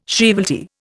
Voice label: "shrievalty", Indian

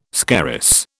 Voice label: "scarus", British

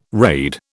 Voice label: "raid", British

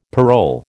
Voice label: "parole", American

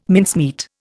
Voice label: "mincemeat", Indian

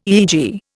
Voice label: "EEG", Indian

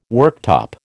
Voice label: "worktop", American

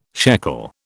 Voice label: "shekel", British

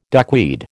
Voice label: "duckweed", American

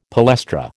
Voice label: "palestra", American

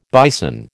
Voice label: "bison", American

